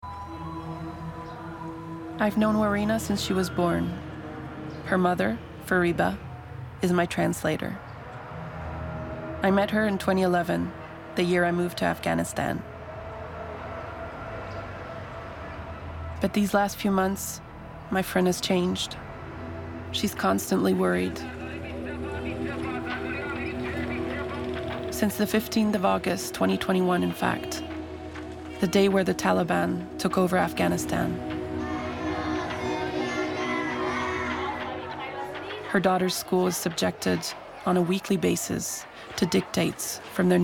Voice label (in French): doc Fr; Afghanes; Elephant prod